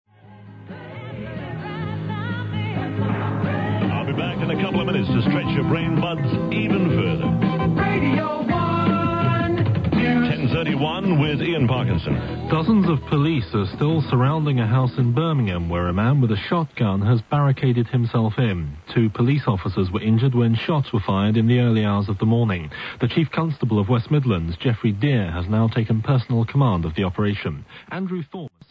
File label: news